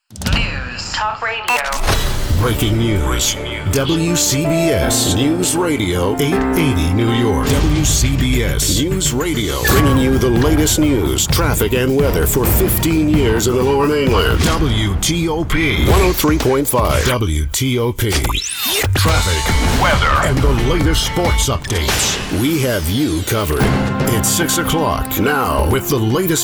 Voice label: Eng US Dynamisch

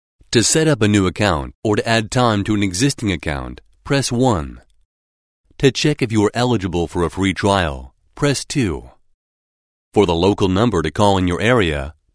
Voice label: Eng US Kommentar Freundlich

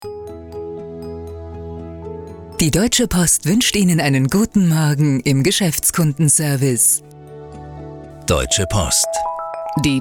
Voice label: Werbung Freundlich

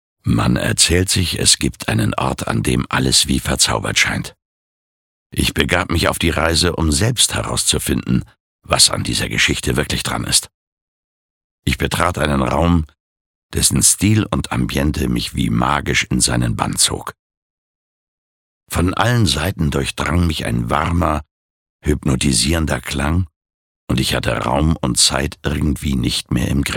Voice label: Schauspiel Cool

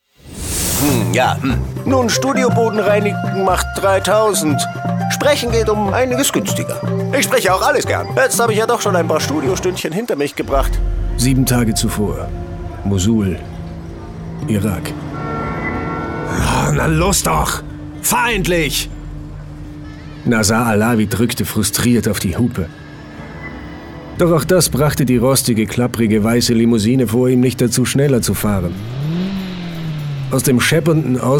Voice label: Schauspiel Variabel

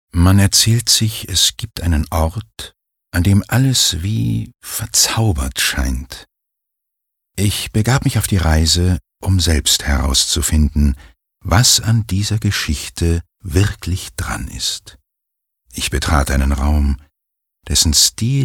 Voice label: Schauspiel Sanft

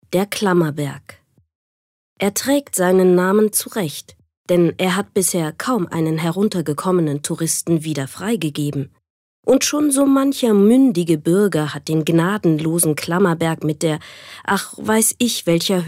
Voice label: Kommentar Neutral

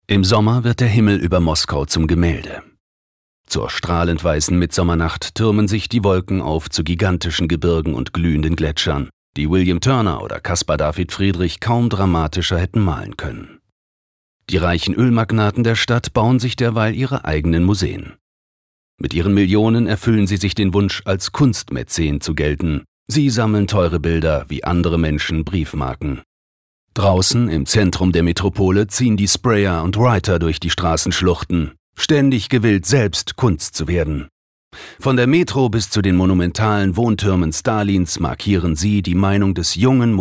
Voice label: Kommentar Neutral

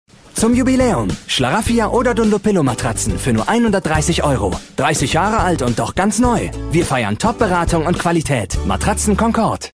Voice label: Fremdtake Dynamisch

